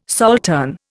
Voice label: "saltern", Indian

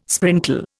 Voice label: "sprinkle", Indian

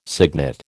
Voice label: "cygnet", American

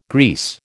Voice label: "grease", American

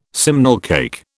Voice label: "simnel cake", British

